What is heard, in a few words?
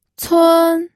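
"cun", pinyin, first tone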